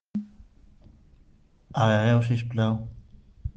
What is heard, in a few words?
Voice message